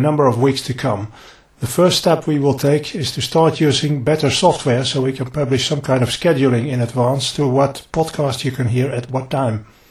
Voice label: LIVE ANNOUNCEMENT reverb; SHORTIES FM